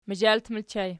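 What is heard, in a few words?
"mazhalt" ergative plural, Archi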